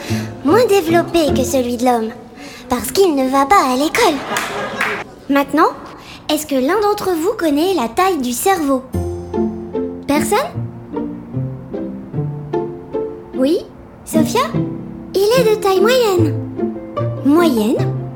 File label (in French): fillette voix prof, fillette en professeur; BARBIE; MATTEL